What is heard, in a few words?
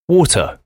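"water", English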